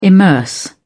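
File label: "immerse", British English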